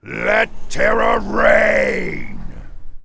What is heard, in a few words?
Warcry; warcraft3; Death Knight